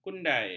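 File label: "kundai", Malay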